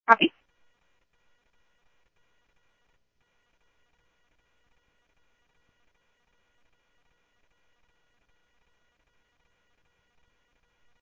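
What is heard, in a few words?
police radio scanner traffic, Mesa Simulcast; MESA PD 1 Fiesta; MesaPoliceDep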